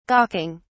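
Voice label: "gawking", British English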